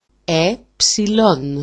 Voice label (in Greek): "epsilon", greek; alphabet